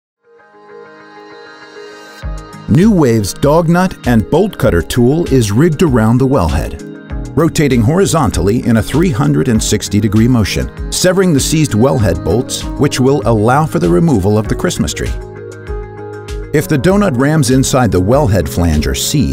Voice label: Product video s Zakelijk, VOICEOVER